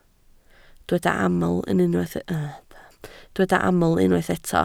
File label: "aml", Welsh